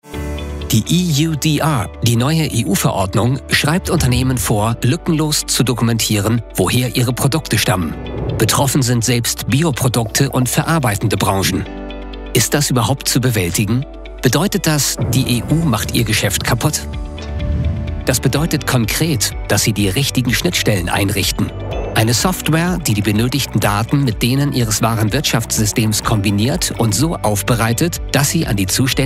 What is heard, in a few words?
german explainer videos